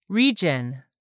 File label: "REGION", english; 3000 words